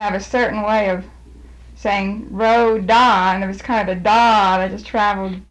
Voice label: Mothers calling children; Tony Schwartz Collection